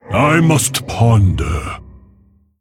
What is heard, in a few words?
Male Mogu Thinking